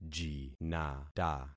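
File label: "jinata", concatenated syllables; Affixing experiment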